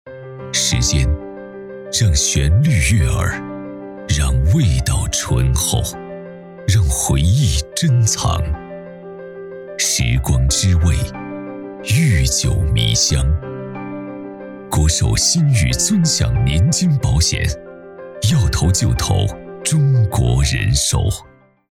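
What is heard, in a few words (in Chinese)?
广告 男 磁性; 中国人寿广告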